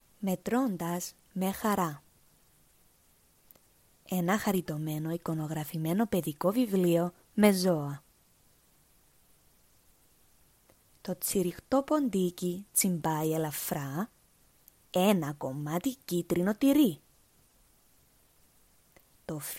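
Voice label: Greek General Cyprus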